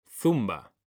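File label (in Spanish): "zumba", Spanish, male; mekanta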